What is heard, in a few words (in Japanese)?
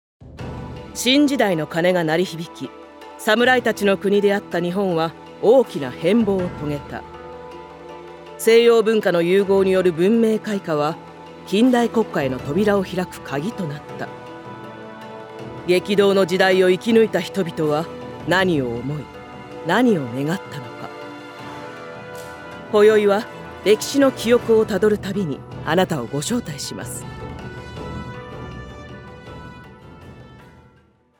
ナレーション